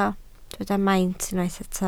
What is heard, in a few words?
"maint", Welsh